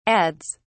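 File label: "adds", British English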